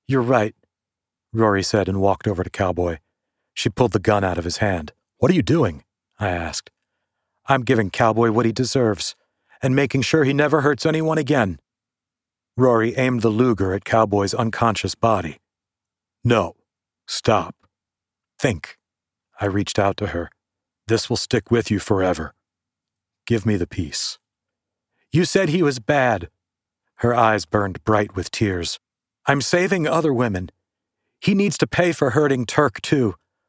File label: MYSTERY THRILLER Female Character Pulls a Gun; audiobooks